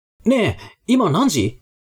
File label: man